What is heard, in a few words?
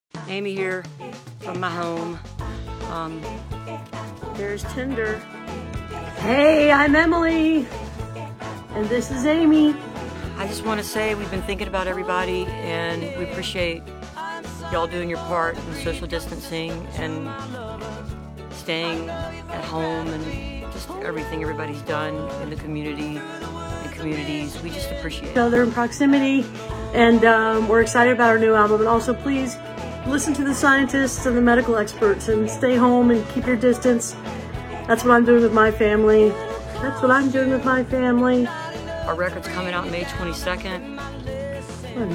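public service announcement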